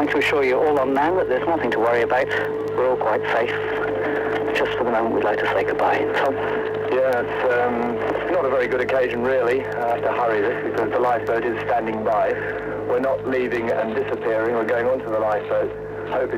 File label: closedown and abandon ship announcement; R Caroline; March 1980